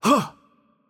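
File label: Arabic attack light voice; bloom minigame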